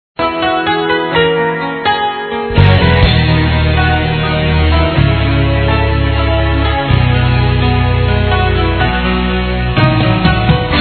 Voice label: saudades romantica, Gospel, masc

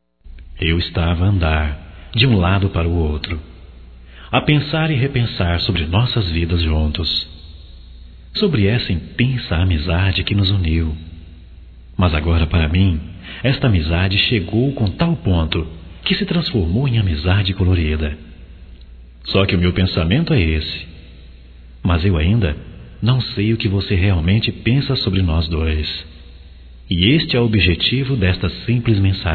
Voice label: ME DE UM SINAL VERDE, Telemensagens, Românticas, masc

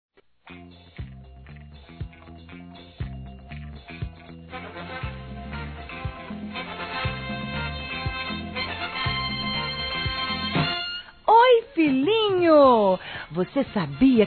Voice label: Filho Criança Feminino; Aniversários; Aniv Familiar

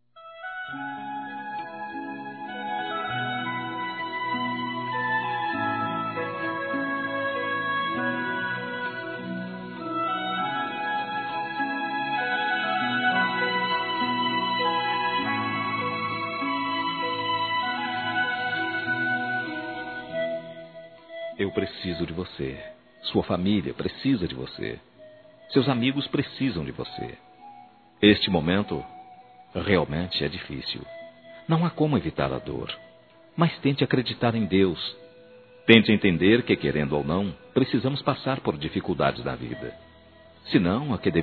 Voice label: Conforto Romântico Masculino; Telemensagens; Telemensagens 2017

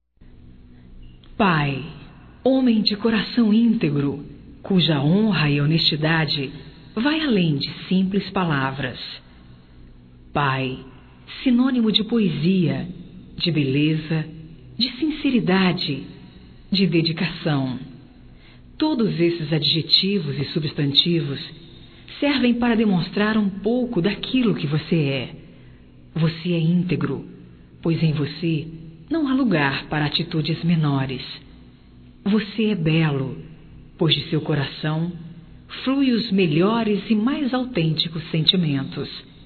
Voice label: PAI, Telemensagens, Aniversarios, fem; Telemensagens 2017

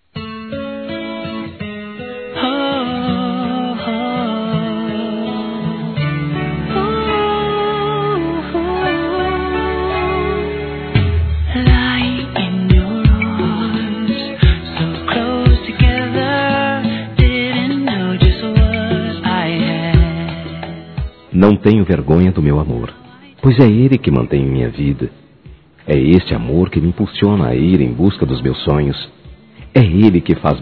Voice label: Aniversário Romântico Masculino; Aniversários

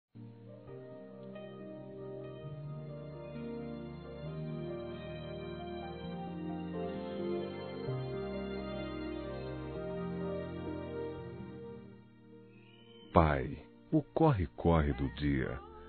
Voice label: Pai Evangélico Masculino; ANIV. Evangelico

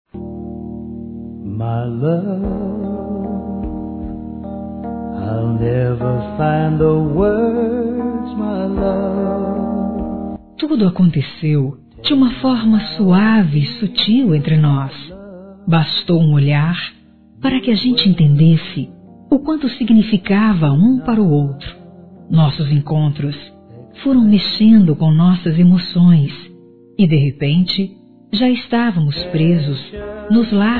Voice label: Forte Feminino, Telemensagens Românticas

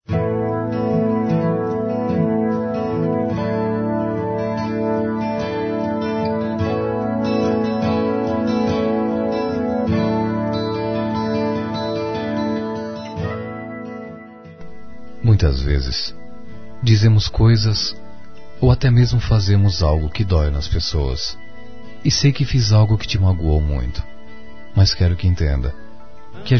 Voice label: reconciliaçao Masculino; Telemensagens; Dia Dos namorados; Telemensagens 2017

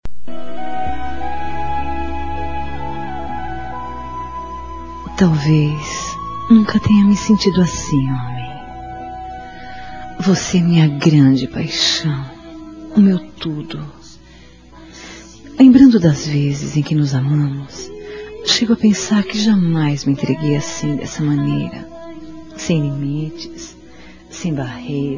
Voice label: EROTICA ADORO COMO VC FAZ FEM; Eróticas romanticas

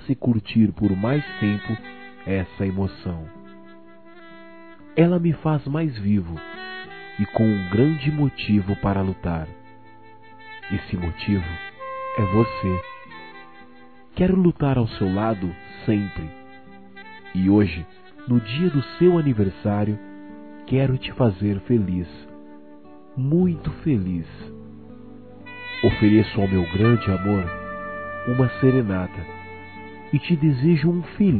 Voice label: Aniversario Esposa Masculino; Aniversários; Aniv Familiar

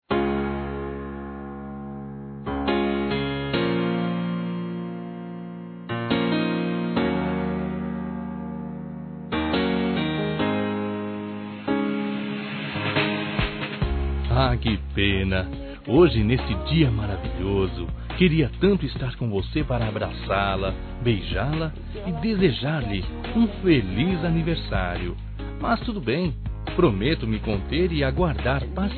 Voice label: AMANTE M, Telemensagens Aniversários

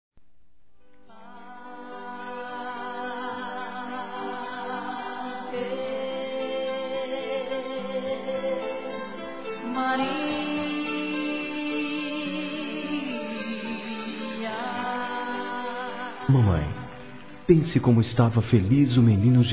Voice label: NATAL FILHO P MÃE, Telemensagens; Natal e Ano novo

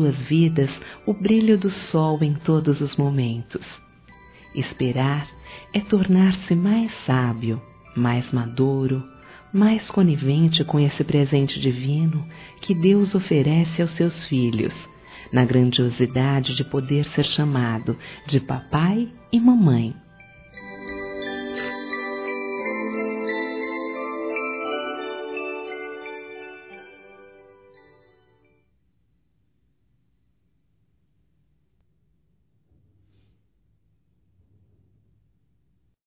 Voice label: PARAB. AO CASAL P FUTURO BEBE, fem

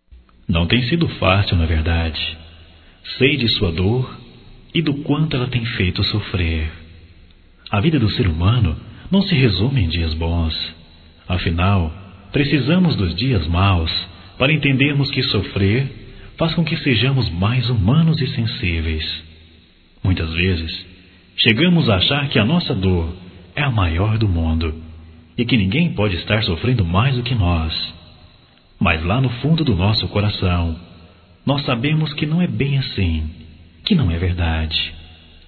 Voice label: Conforto Geral Masculino, Só Voz; Telemensagens; Telemensagens 2017

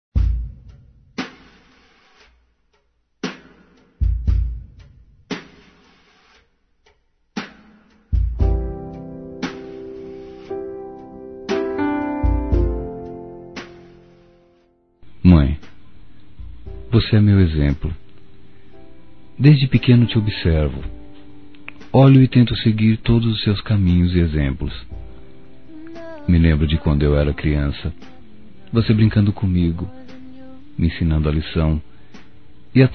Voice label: Dia das Mães Companheira Masculino, Telemensagens; Telemensagens 2017

